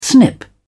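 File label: "snip", British English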